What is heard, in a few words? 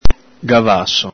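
"gavaso", Venetian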